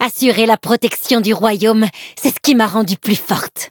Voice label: levelup, fr; Cookie Run